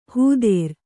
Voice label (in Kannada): "ಹೂದೇರ್", Kannada